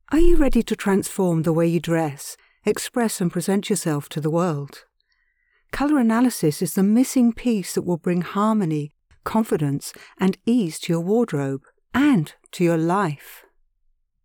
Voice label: Colour Analysis, Television Spots, British, Female Voice Over